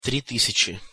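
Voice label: "tri tysjaci", Russian pronunciation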